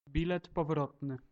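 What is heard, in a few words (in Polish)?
"bilet powrotny", Polish; multimedia glossaries; transport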